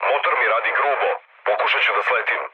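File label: Serbian damaged engine mood low, speech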